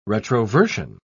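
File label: "retroversion", British English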